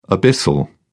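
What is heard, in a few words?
"abyssal", English, British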